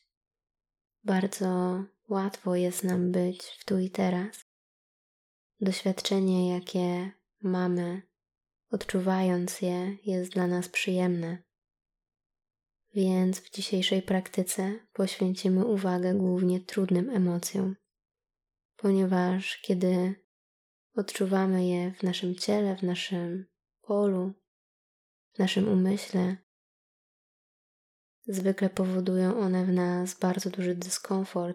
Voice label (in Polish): fragment medytacja nad emocjami